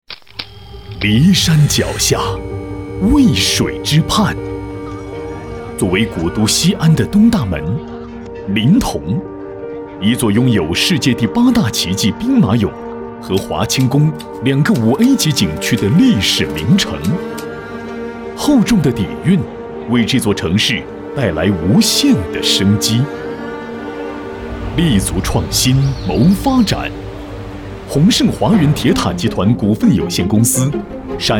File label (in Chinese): 男,品质讲述,张力; 银河分公司